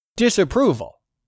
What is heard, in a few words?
"disapproval", English; 3000 words